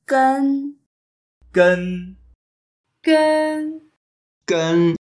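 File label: "gen1", Mandarin Chinese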